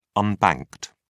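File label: "unbanked", British English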